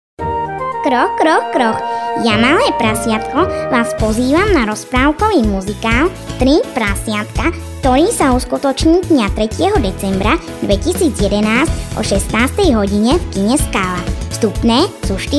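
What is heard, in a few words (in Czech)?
reklami spot; 3prasiatkamuzikal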